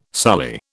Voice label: "sully", British